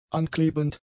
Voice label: "anklebend", German; adjectives

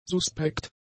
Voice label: "suspekt", German; adjectives

